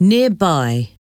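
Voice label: "nearby", English word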